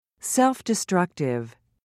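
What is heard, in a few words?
"self-destructive", British English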